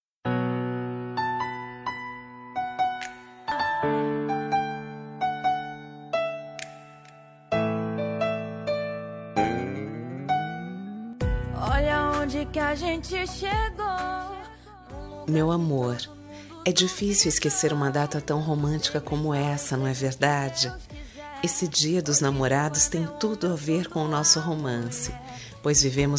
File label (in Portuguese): dn marido fem; Dia dos Namorados - Para Marido - Voz Feminina